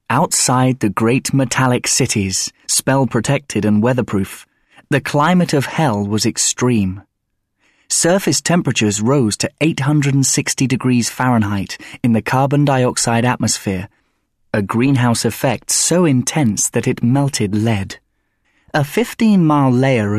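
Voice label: narration, male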